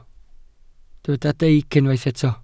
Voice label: "deic", welsh

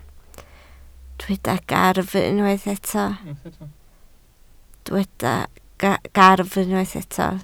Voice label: "gafr", Welsh

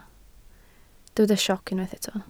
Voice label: "sioc", Welsh

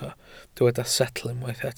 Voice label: "setl", Welsh

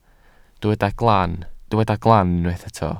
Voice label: "glan", Welsh

